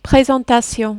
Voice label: "presentations", French